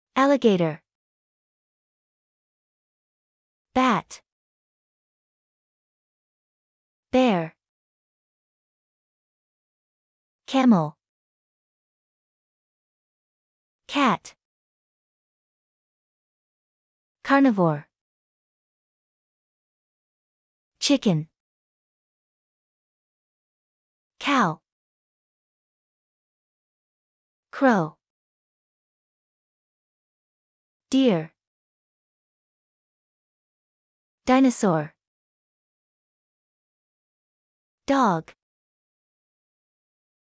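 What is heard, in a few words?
American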